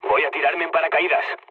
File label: bailout, mood low, speech; War Thunder